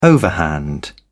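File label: "overhand", British English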